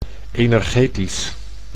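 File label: "energetisch", Dutch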